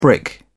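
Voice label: "brick", English, British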